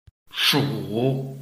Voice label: "shu3", Chinese

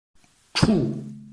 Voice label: "chu4", Chinese

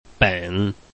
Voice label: "ben3", Chinese

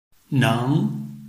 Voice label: "neng2", Chinese

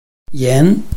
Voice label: "yan2", Chinese

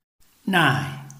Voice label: "nai4", Chinese

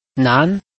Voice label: "nan2", Chinese

